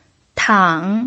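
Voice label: "tang", Mandarin Chinese pinyin, third tone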